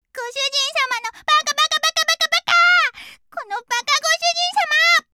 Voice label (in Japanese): ののしりボイス